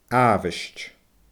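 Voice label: "aabhaist", Scottish Gaelic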